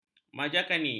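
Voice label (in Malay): "majakani", Malay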